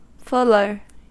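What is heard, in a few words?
"fuller", American English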